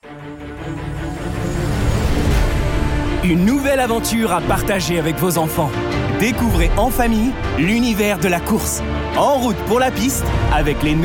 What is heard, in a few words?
French